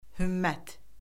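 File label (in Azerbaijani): "hümmət", Azerbaijani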